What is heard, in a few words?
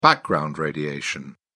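"background radiation", British English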